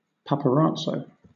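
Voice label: "paparazzo", British English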